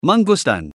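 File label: "manggustan" Malay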